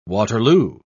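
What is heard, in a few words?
"waterloo", British English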